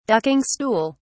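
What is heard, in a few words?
"ducking stool", British English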